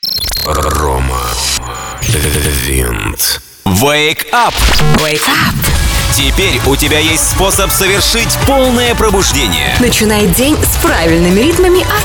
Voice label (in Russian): Мужской голос